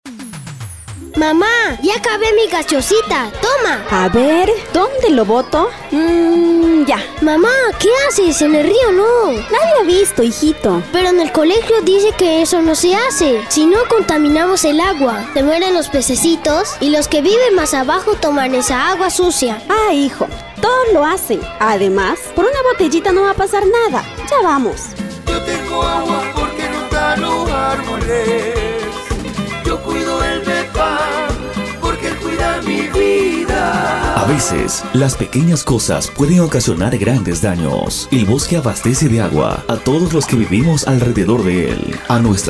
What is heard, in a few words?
spot